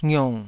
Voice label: "ngiong3", taiwanese syllables

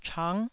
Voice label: "chang9", taiwanese

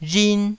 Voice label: "rhin1", taiwanese

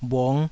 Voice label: "bhung5", taiwanese Syllables

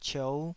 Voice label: "ciou5", Taiwanese

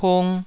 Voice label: "pung2", taiwanese

